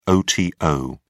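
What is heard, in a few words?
"oto", British English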